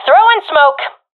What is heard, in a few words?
throwing smoke, professional fem; vo; Counter Strike Model Pack; CS:GO